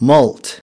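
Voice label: "molt", English word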